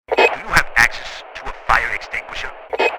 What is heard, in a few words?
Firefighter radio chatter access to a fire extinguisher